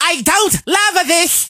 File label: hurt